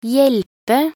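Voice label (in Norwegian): "hjelpe" Norwegian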